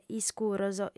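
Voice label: "iscuros", Sardinian, female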